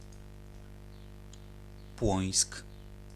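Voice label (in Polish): "Płońsk" Polish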